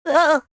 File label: elf scared; ea tsto